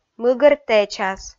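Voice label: "мыгӧр тэчас", Komi, female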